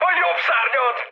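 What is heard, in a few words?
Hungarian right wing mood high, speech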